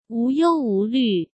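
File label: "无忧无虑", Mandarin Chinese; hsk; chinese-flashcards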